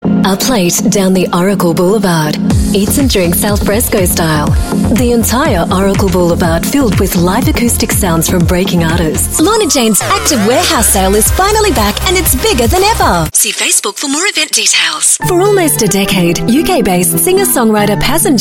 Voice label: AU MidAtlantic English Commercial, Australian English Female